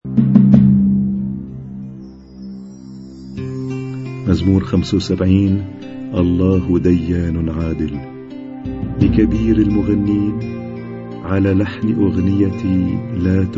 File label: Arabic Psalm Zaboor